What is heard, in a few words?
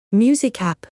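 "music app", English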